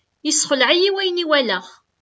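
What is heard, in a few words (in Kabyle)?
Yessexleɛ-iyi wayen i walaɣ., Kabyle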